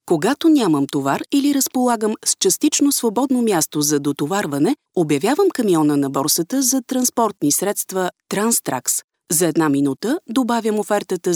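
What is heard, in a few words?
Bulgarian, female, voice over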